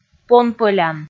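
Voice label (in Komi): "понпӧлян", Komi, female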